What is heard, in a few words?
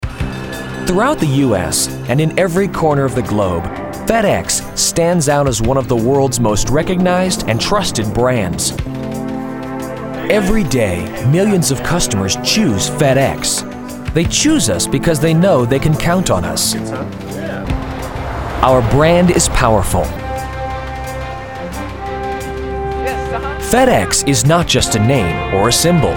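Corporate Video; Fedex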